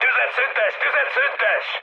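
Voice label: player friendly fire, mood med, Hungarian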